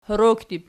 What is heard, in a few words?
"horookdib", Archi